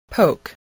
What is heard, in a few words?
"poke", English